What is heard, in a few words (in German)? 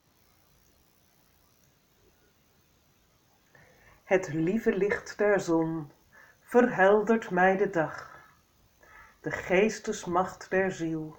Morgenspruch Holland Dutch; Vrije School Den Haag